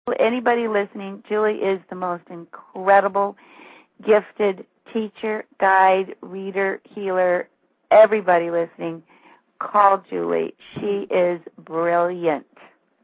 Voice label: testimonial